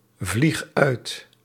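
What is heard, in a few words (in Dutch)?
"vlieg uit", Dutch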